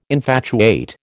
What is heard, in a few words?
"infatuate", American